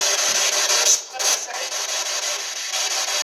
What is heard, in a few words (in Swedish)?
EVP Tyska